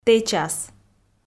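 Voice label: "тэчас", Komi, female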